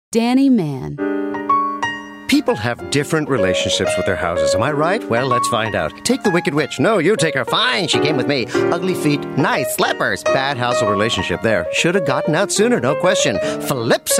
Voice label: commercial